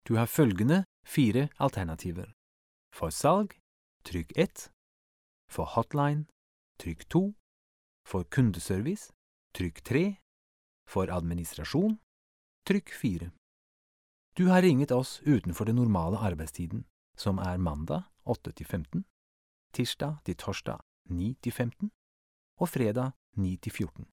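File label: Norwegian IVR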